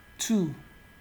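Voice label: "two", Nigerian Pidgin, male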